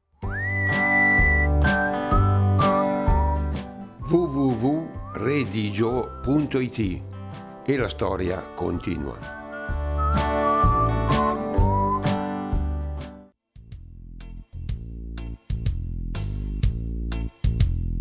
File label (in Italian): legnano dialetto